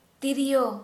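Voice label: Santali female flute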